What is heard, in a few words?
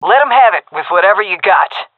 request spend, fem; vo; agents; Source Model Packs; Counter Strike Model Pack; CS2